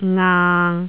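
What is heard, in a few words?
"ngaang6", Cantonese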